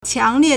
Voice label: "qiang2 lie4", Chinese